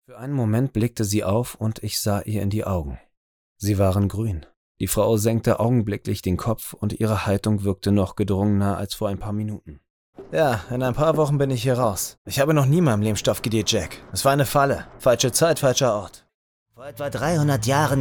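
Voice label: Synchron Hörspiel, Scene, Audiobook (Hörbuch), Audio Drama (Hörspiel), Jung (18-30), German; Berlin; Germany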